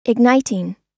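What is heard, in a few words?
"igniting", American English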